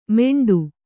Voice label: "ಮೆಂಡು", Kannada

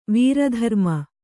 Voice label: "ವೀರಧರ್ಮ", Kannada

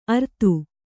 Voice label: "ಅರ್ತು", Kannada

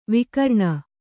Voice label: "ವಿಕಿರಣ", Kannada

